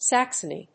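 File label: "Saxony", English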